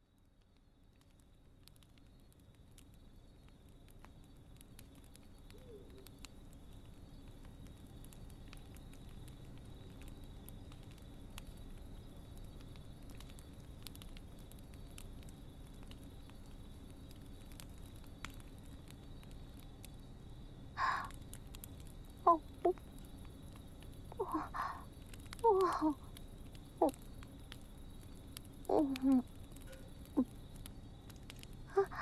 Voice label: ねんね (右耳), ASMR; とおことねんね、しようねぇ（右耳）

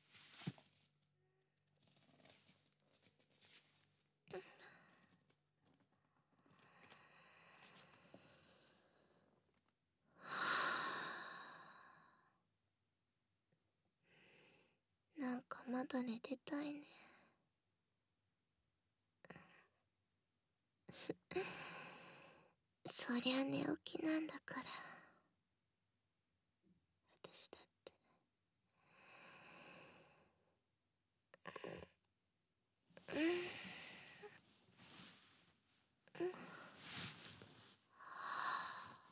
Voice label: 耳かき, ASMR; 後日談1; ホワイトノイズ除去済み

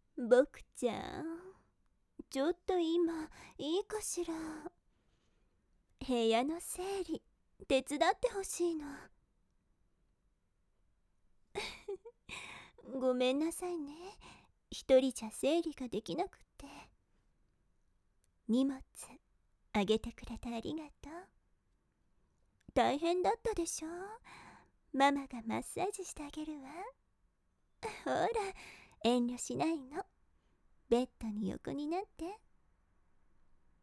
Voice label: ママのマッサージ, ASMR

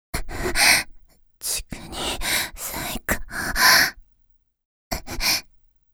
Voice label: 「はうっ、チクニー…さいこぅ、ううっ！」（ささやき）

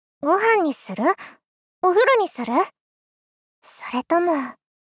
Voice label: ご飯にする?お風呂にする?それとも・・・? 少女×中音域

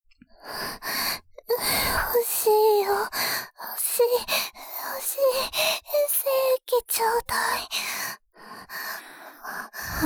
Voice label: もっともっと（囁き、耳舐め、キス音）

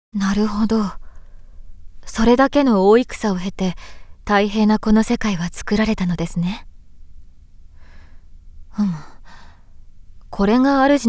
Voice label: 声のみ ノイズ除去なし

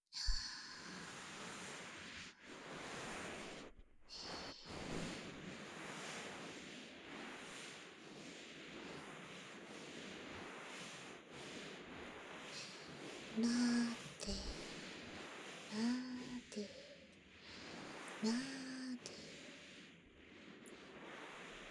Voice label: 冬耳マッサージオノマトペのみ

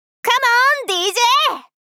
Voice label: かも～んDJ！ 大人×中音域

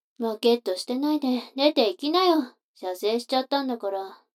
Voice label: 女の子台詞

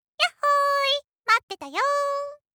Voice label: システムボイス

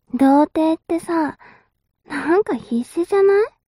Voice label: mob 女子 台詞

